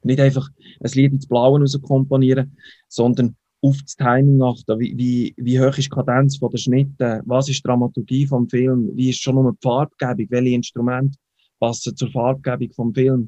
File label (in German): Werbung; Hitmill